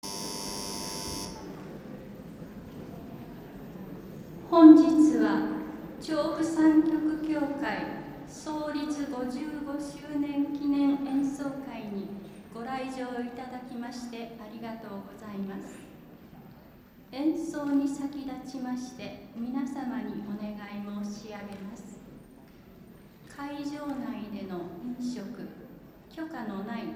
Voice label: Anounce; 55th anniv